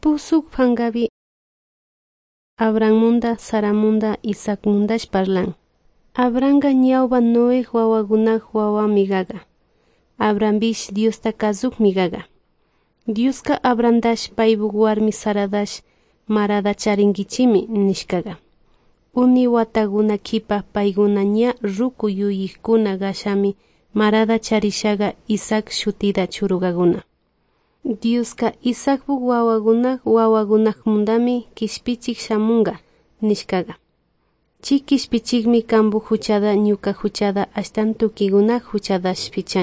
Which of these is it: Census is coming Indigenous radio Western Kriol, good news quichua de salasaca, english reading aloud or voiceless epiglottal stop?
good news quichua de salasaca